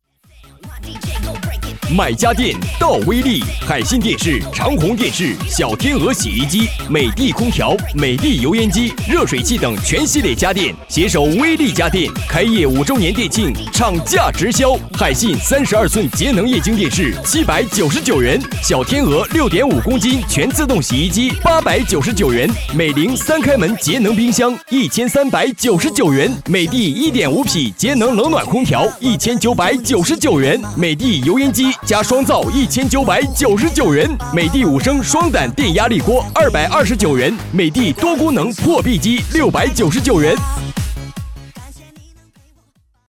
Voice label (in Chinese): 男79 【促销】; 实惠大气; 买家电到微力